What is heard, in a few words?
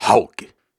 Syllables and Imaginary Words, Hard, Viking Vocal